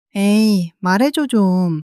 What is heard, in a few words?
sulk, monologue